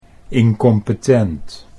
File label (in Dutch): "incompetent", Dutch